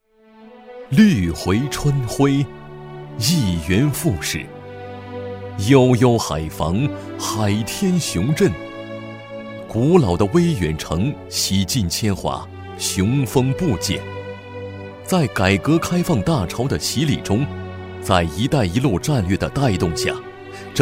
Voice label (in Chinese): 专题 银行 舒缓; 中国银行镇海分行